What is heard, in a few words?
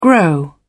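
"grow up", British English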